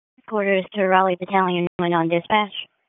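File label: Fire Department HQ Dispatch; RFD HQ DISP; wake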